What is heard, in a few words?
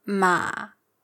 "ma3" low; mǎ, “horse